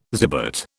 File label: "zibet", British